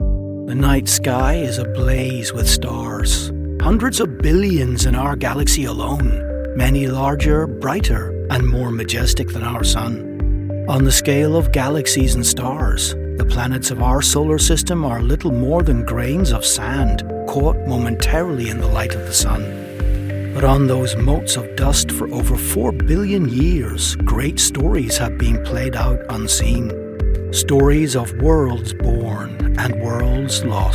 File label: Documentary; The Planets